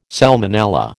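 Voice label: "salmonella", American English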